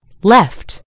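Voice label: "left", British English; gcompris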